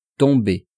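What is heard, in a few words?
"tomber", French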